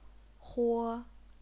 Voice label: "huo1", chinese pinyin